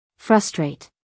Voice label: "frustrate" US English, female; everyone-can-use-english